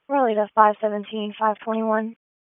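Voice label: Raleigh Police Southeast District Dispatch; wake p25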